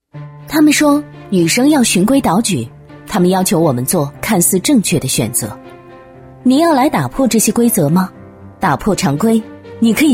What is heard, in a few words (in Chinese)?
广告-个性任性街头风; 品牌形象广告